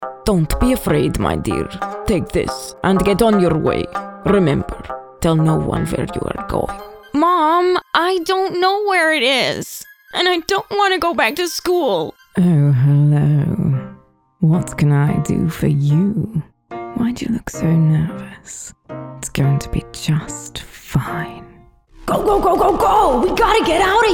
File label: character VO showreel